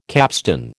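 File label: "capstan", American English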